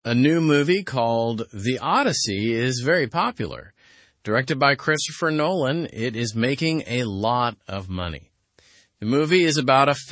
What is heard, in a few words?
english through news lower intermediate; the odyssey movie sparks book interest